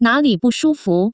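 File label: "bushufu", Taiwan Mandarin; pharmacy yaoju zhengzhuang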